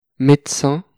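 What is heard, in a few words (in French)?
"medecin", French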